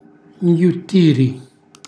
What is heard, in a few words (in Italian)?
"nghjuttiri", Calabrese; cucina calabrese; Glossario